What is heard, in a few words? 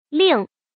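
"lìng", Chinese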